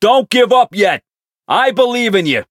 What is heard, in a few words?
variable chant; hardy